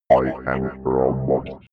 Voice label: robot voice i am robot fx